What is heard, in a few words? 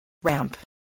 "ramp", english